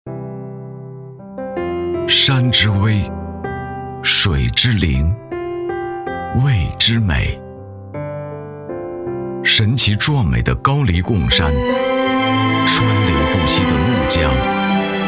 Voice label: 男 专题

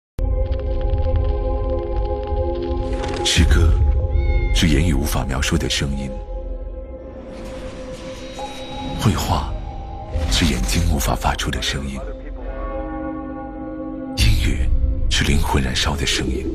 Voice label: 男, 形象片, 节目, 概念宣传片; 歌手2019

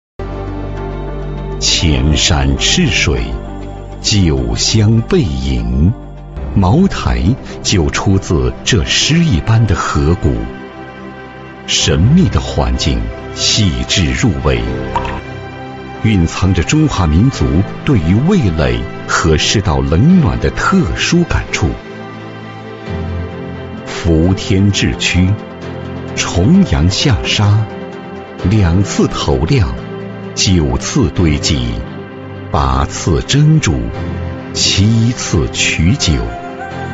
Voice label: 男

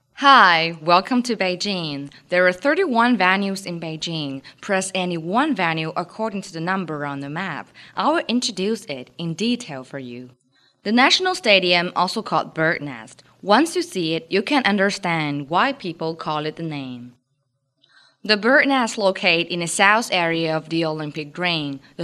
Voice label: 英女; beijing

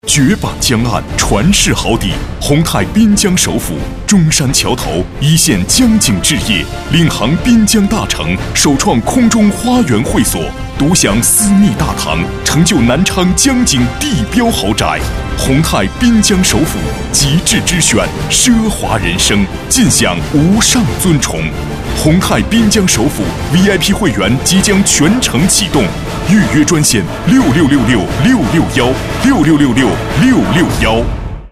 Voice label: 男 广告, 国语男声配音, 大气浑厚; 滨江首府

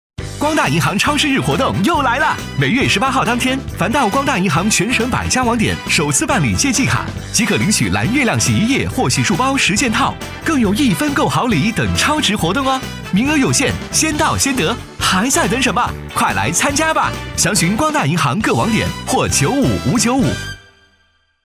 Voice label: 男 广告 银行 活力 轻松; 光大银行

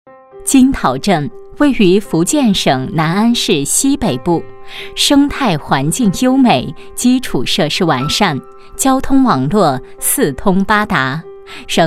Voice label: 女 专题; 金淘镇

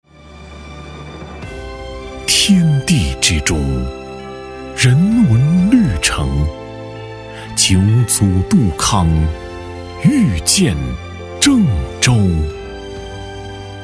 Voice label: 男 宣传片; 酒祖杜康郑州印象

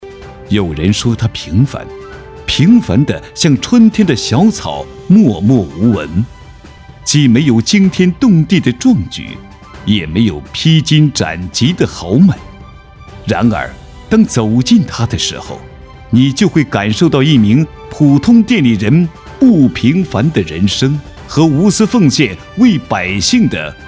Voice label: 男 颁奖类 大气 颁奖人物

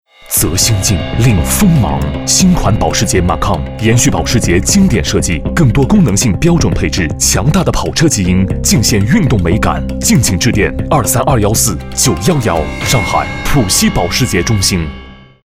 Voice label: 男 广告; 华东保时捷-上海

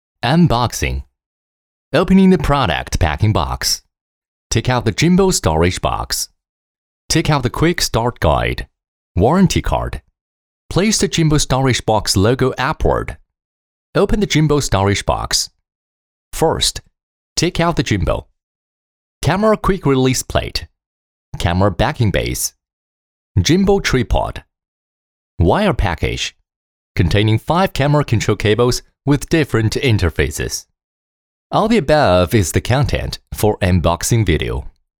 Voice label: 教程配音; F2教程配音文稿校对

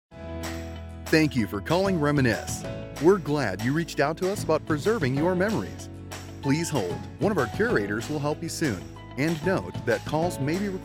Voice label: 外籍英男 欢迎词

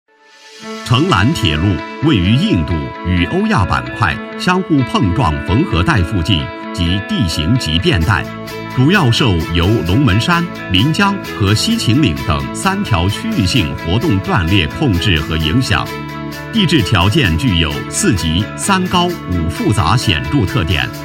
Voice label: 男 工程汇报 铁路活动断裂带; 成兰铁路

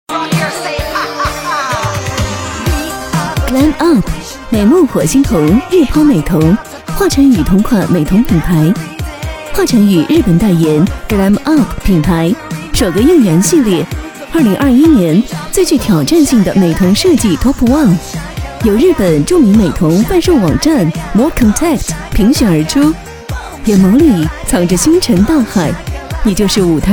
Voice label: 女 宣传片 美瞳

